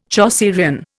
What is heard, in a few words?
"Chaucerian", Indian